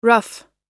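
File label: "rough", US English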